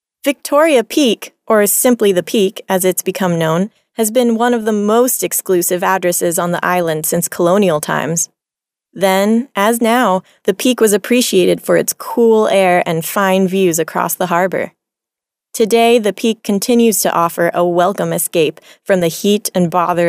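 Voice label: American English female corporate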